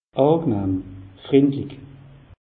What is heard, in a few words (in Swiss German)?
"angenahm", alsace; meteo